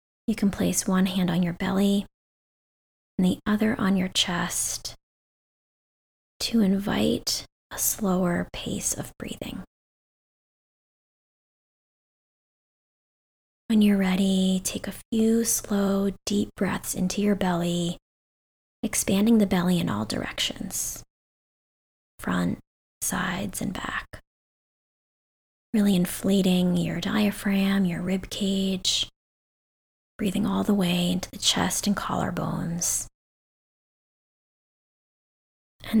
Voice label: worry meditation with noise gate